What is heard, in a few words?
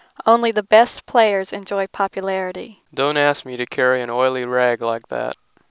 speech signal